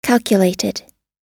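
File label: "calculated", US English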